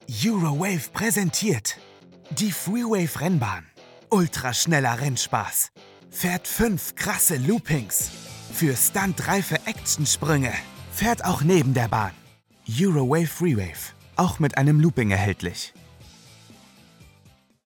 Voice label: excited